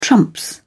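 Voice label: "trump", British English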